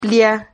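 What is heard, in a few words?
"plia", Spanish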